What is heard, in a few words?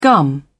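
"gum", British English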